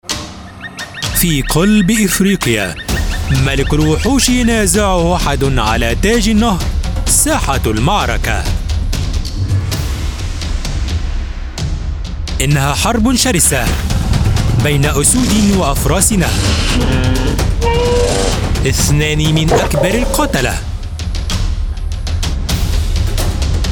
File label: Arabic documentaries